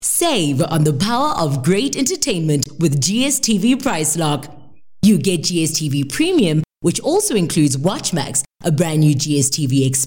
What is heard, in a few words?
English; OSM Talent